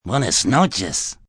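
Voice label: Goodnight Spanish Male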